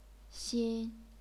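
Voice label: "xin", chinese pinyin, first tone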